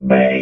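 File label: "bay", robot voice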